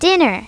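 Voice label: "dinner", English; My Talking Dictionary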